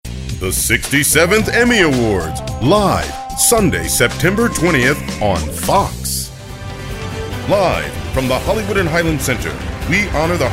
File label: Announcer; Demo